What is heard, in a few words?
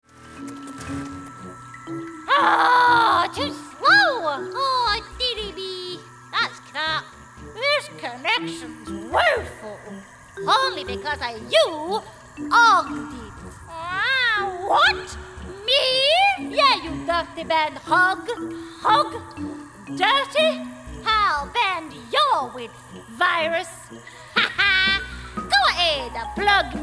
Character